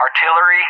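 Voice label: "artillery", English; JTAC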